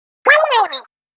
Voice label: Voice Girl "Booyah!"; Splatoon